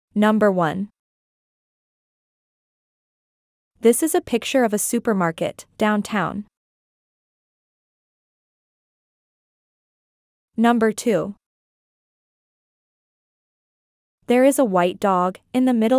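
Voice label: American; describing places town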